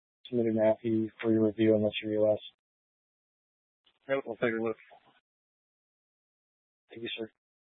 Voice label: Police 1 Dispatch